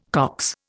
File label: "Cox", Indian